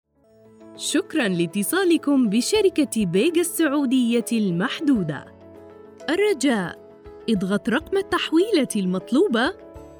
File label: IVR